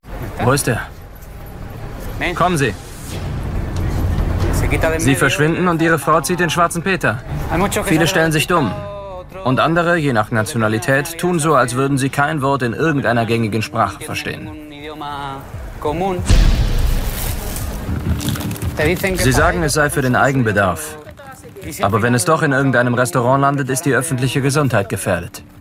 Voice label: voiceover